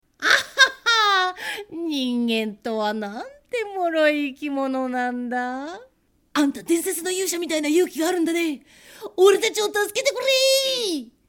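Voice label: Japanese game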